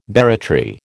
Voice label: "barratry", American